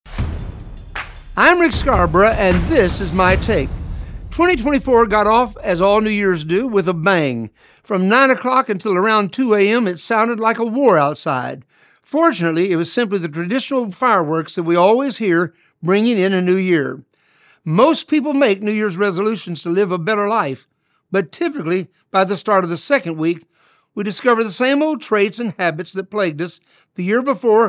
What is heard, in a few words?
Radio Spot; New Years Resolutions